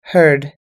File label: "heard", us-english